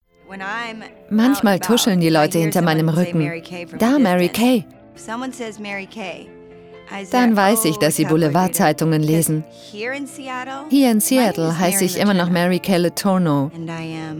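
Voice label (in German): Kommentar, Dynamisch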